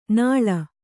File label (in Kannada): "ನಾಳ್", Kannada